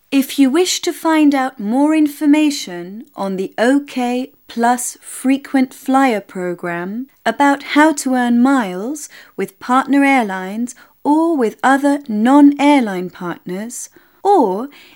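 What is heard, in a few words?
Female English ivr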